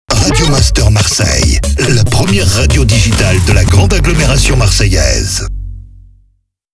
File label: Sweeper MEDIUM